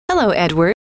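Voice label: "hello", female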